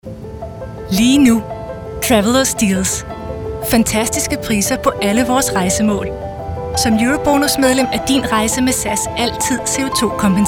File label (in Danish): radiospot kvindestemme; sas